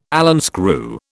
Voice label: "Allen screw", British

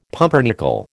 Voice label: "pumpernickel", American

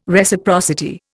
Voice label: "Reciprocity", Indian

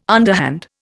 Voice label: "underhand", Indian

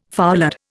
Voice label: "foulard", Indian

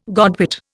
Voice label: "godwit", Indian

